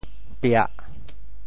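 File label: "piah4", Teochew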